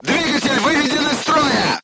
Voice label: voice message engine destroyed, crew tanks russian